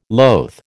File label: "loath", American